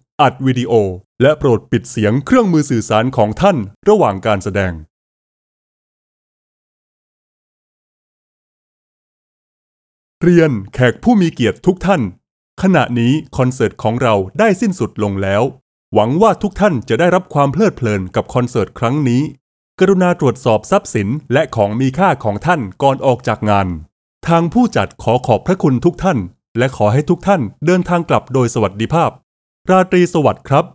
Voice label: Announcement Male Thai Epic